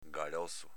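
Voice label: "galozo", Venetian dialect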